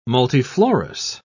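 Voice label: "multiflorous", English, British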